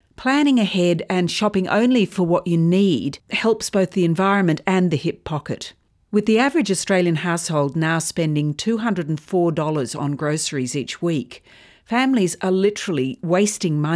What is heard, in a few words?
radio grab